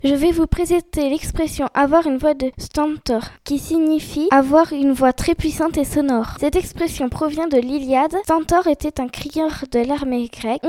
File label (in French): Une voix de Stentor